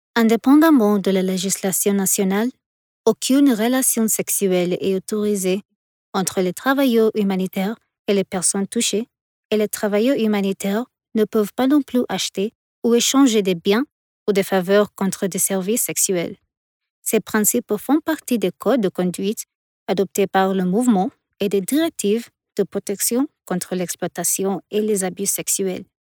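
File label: French African female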